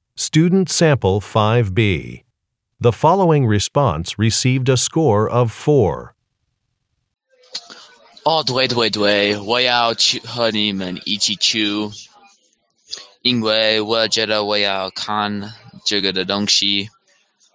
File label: chinese conversation